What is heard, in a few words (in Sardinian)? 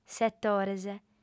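"setores", female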